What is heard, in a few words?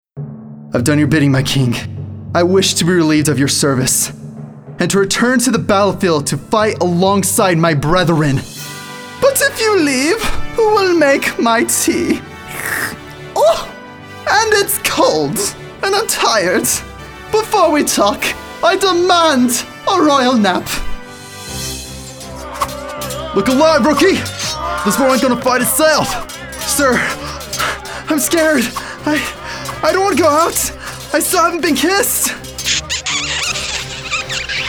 Character